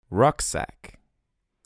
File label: "Rucksack" US